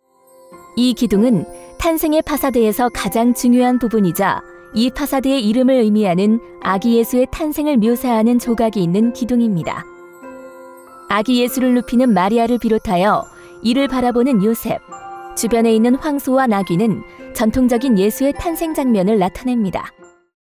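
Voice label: Korean, female, explainer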